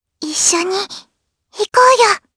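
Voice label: Vox Skill, Japanese; Lavril; kings raid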